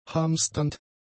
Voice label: "hamsternd", German; adjectives

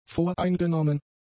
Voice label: "voreingenommen", German; adjectives

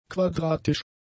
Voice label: "quadratisch", German; adjectives

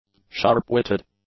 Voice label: "sharp-witted", English; adjectives

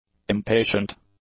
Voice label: "impatient", English; adjectives

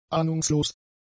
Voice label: "ahnungslos", german; adjectives

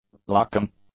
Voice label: "locking", English; nouns

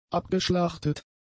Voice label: "abgeschlachtet", German; adjectives

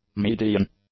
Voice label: "median", English; adjectives

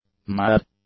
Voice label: "mad", English; adjectives

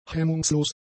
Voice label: "hemmungslos", German; adjectives

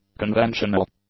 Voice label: "conventional", English; adjectives

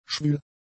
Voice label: "schwül", German; adjectives

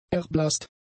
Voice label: "erblasst", German; adjectives

